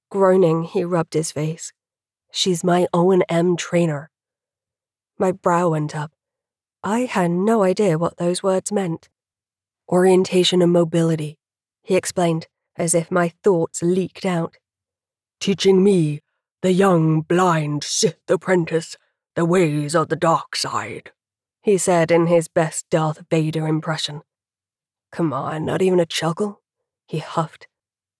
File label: American accent male character